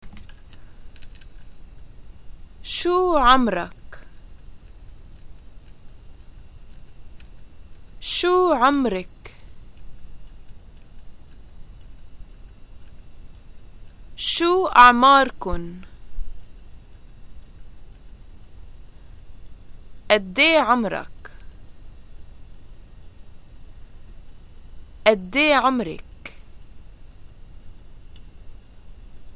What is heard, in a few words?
introductions how old are you, arabic levantine